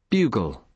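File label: "bugle", British English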